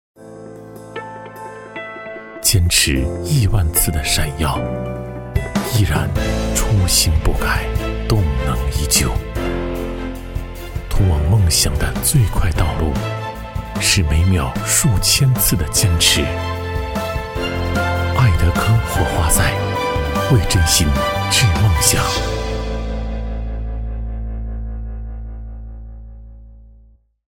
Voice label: 男 广告 磁性自然浑厚 火花塞; 爱德柯火花塞

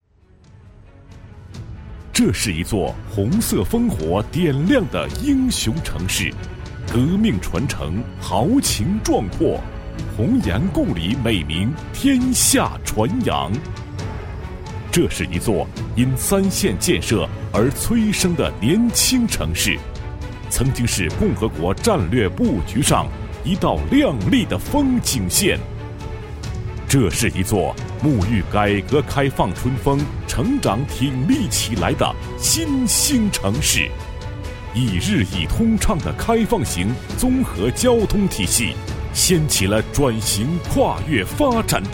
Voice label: 男 专题 城市宣传, 国语男声配音; 华蓥

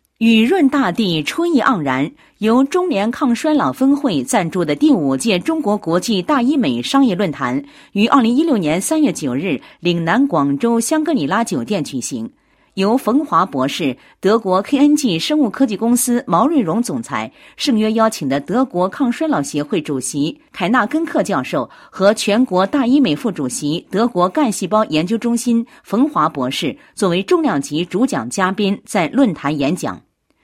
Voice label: 女 新闻; 第五届中国国际大医美商业论坛

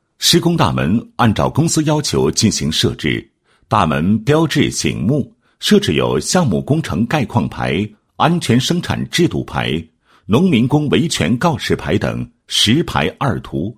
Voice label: 男 工程解说, 施工大门项目工程解说

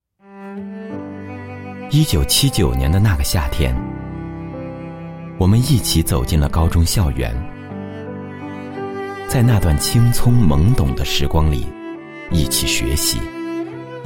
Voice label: 男 专题 温情感人 同学会, 国语男声配音; 磁性专题

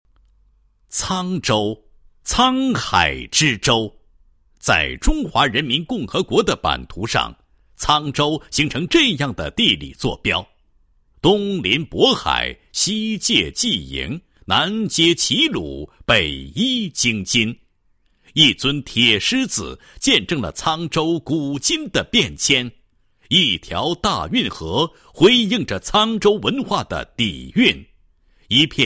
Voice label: 男 项目介绍; 沧州市邯港高速公路

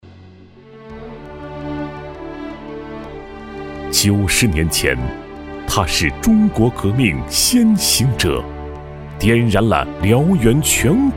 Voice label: 男 纪录片; 新时代井冈山

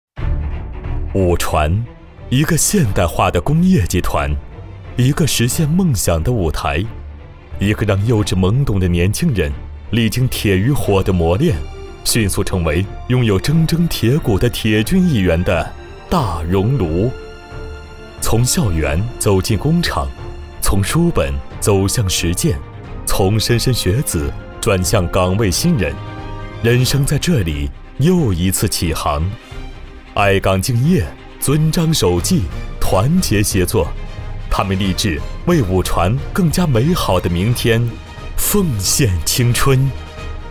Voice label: 男 专题 武船 浑厚大气

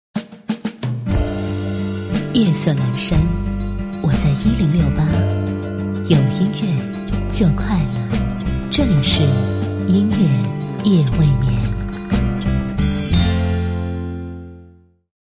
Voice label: 女4号 栏目包装, 国语女声配音; 优雅; 夜未眠3

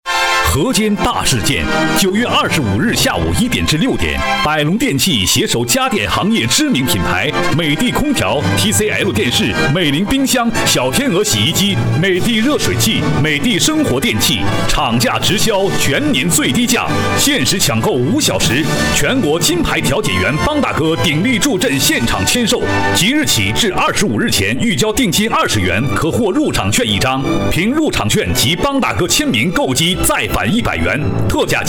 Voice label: 男, 电器促销广告

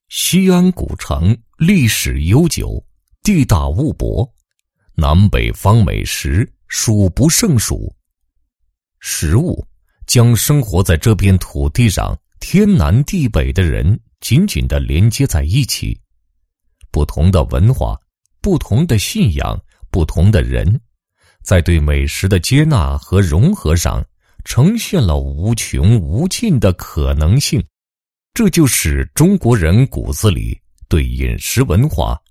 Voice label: 男 舌尖风格; 职业学院; 舌尖上的海棠职业学院

